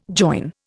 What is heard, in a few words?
"join", Indian